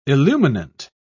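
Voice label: "illuminant", British English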